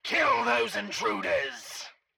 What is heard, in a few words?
Scientist Caster Chokepoint Line